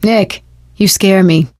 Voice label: wedding witch dead, survivor voice; Left 4 Dead 2; Source Engine; video games; producer